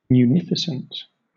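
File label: "munificent", British English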